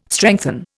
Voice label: "strengthen", Indian